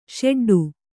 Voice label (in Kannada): "ಷೆಡ್ಡು", kannada